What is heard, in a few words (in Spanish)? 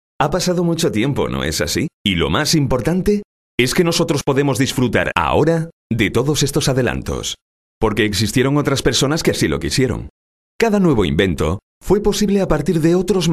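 doblaje España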